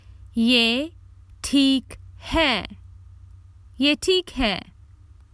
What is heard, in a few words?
Thats fine ok, Common Hindi Phrases for travel